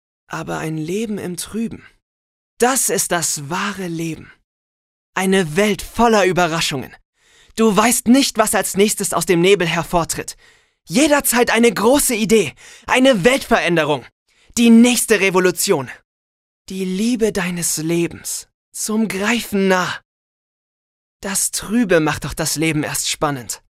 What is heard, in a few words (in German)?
Klarheit Dynamisch